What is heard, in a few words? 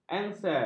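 "engsel", Malay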